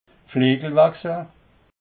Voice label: "flejelwechsel", alsace

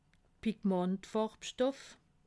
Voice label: "pigmen farbstoff", alsace

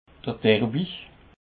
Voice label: "derby", alsace

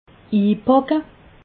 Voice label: "ipacke", alsace

